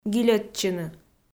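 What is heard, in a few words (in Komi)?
"гильӧдчыны", Komi-Zyrian, female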